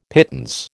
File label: "pittance", American